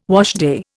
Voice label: "washday", Indian

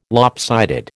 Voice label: "lopsided", American

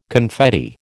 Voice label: "confetti", American

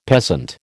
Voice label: "peasant", American

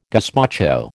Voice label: "gazpacho", American

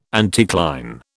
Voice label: "anticline", British

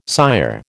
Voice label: "sire", American

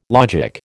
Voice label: "logic", American

